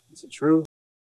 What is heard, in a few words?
Voice Acting, es, M